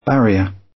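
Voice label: "barrier", British; Language, linguistics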